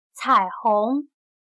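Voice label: "彩虹", Chinese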